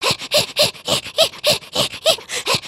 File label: Panic